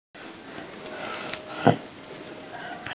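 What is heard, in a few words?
"qian bi" Chinese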